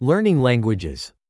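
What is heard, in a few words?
"learning languages", English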